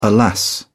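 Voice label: "alas", British English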